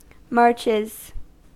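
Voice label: "marches", US English; pronunciations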